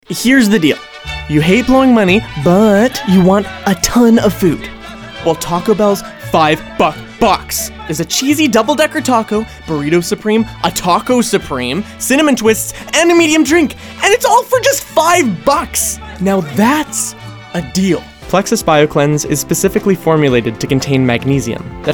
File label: Commercial VO